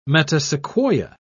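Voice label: "metasequoia", English, British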